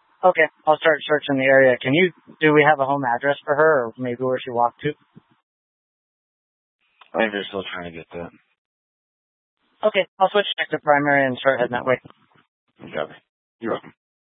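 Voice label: Incident Tac 3, Scanner audio recording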